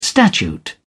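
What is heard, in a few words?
"statute", British English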